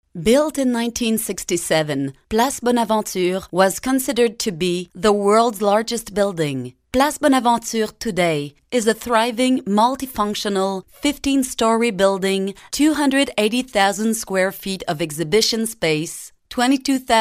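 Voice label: French Canadian, Accented English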